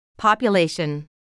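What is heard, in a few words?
"population", English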